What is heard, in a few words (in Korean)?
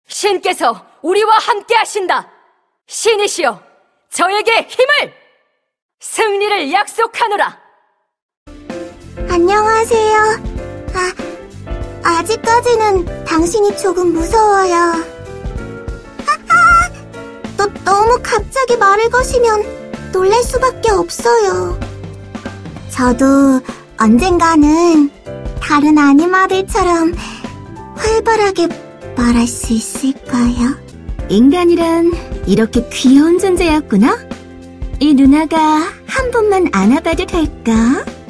게임 20대 여전사 강력한